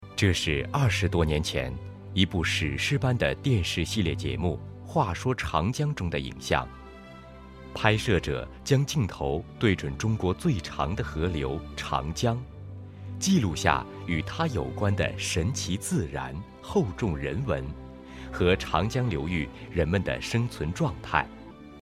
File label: Mandarin M